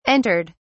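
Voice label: "entered", British English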